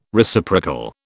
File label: "Reciprocal", British